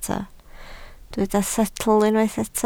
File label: "setl", Welsh